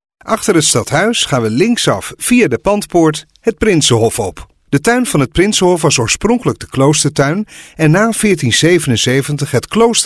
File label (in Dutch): audiotour